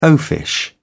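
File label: "oafish", English, British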